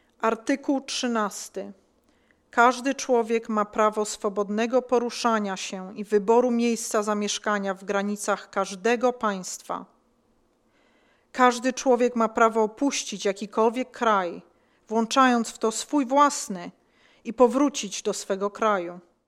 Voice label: Polish

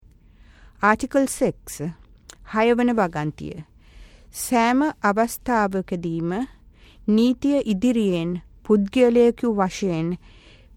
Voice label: Sinhalese

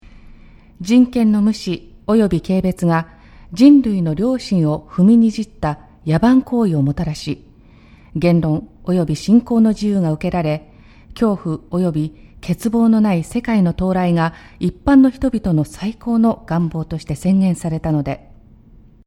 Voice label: Japanese

